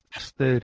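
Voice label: "h2ster", Proto-Indo-European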